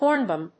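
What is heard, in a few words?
"hornbeam", English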